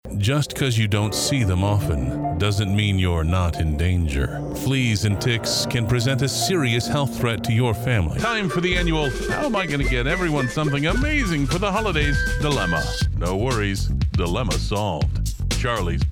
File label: Commercial Male; Commercial Voiceover, TV and Radio